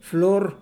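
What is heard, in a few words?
"flor", Spanish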